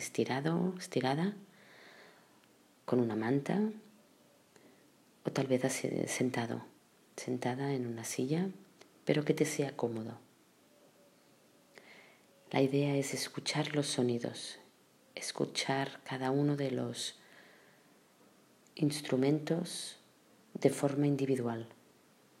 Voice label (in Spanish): instrucciones música meditativa